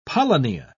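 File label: "polynya", British English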